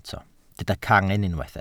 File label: "cangen", Welsh